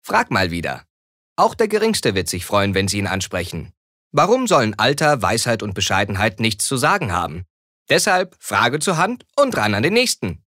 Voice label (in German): Werbung Dynamisch